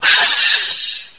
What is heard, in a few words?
pain; hunger; babyslave